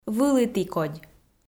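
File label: "вылітікодь", Komi, female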